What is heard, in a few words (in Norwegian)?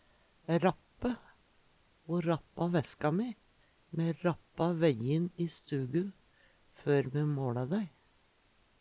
rappe, Numedalsmål; Eksempel på bruk Ho rappa væska mi. Me rappa vejjin i stugo før me måLa dei.